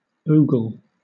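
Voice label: "ogle", British English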